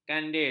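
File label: "kandil", Malay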